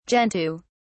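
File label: "gentoo", British English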